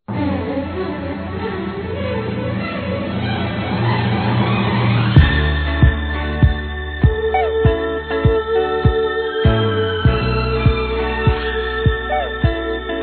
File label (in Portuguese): Natal Para Amiga Feminino; Telemensagens; Natal e Ano novo